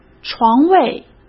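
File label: "床位", Mandarin Chinese; hsk; chinese-flashcards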